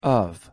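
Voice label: "of", English pronunciation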